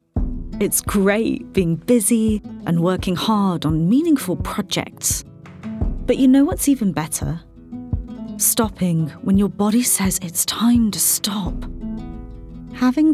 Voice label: female English commercial; Holland and Barrett; English northern accents